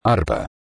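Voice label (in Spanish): "arpa", Spanish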